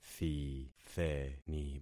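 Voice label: "thitheni", concatenated syllables; Affixing experiment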